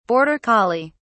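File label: "border collie", British English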